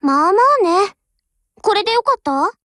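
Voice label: WIN Japanese; GF Alarm; UMP45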